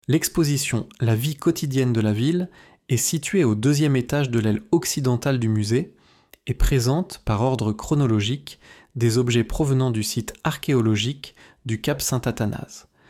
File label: Museum French, audio guide; audioguide du musee